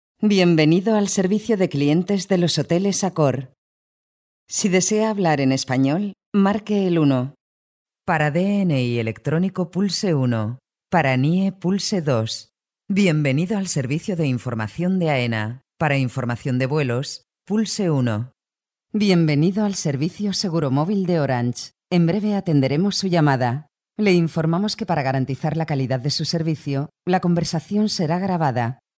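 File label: Spanish Spain female upbeat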